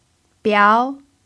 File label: "biao2", pinyin